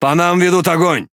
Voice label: Speech Pilots Torpedo Under Attack, RU; World Of Warships

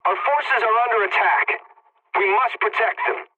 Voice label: pilot point under attack, english; War Thunder

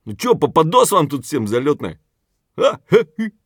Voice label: scav mutter, voice; Escape from Tarkov; scav5

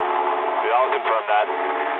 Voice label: eng player killed enemy mood low, speech eng

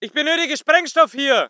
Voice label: voice ger infantry; Red Orchestra 41-45

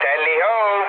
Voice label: enemy detected mood low, speech it; War Thunder

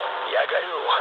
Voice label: on fire, mood low, speech ru; War Thunder

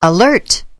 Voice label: A-10C Alert, Speech ENG; A-10 VMU; DCS

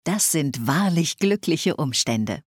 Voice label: Happy Circumstance, Speech German Advisors; Civ5

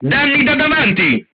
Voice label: shot front, crew tanks italian; War Thunder

